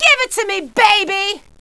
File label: fight, female; kingpin loc; blunt